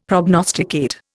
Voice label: "prognosticate", Indian; Dictionary